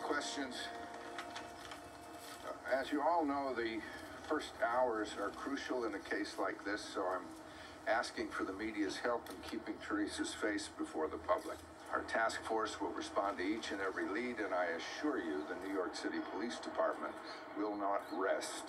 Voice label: english audio